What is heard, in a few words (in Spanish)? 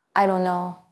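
Voice Acting es F, Spanish, female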